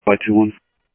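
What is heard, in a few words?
Sheriff Lake Patrol, scanner audio trunk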